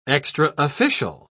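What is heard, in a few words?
"extraofficial", British English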